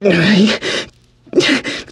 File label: radio combat color; Left 4 Dead 2; churchguy